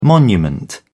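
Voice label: "monument", British English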